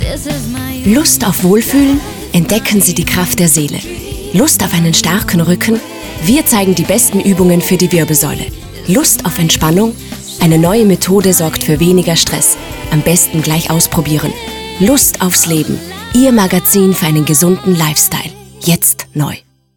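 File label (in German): Werbung DE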